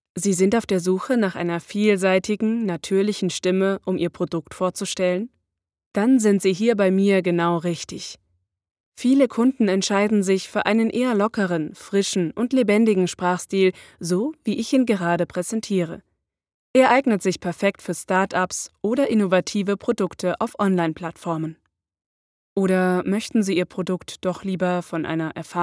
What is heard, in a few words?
german podcasts